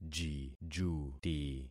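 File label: "jijuti", concatenated syllables; Affixing experiment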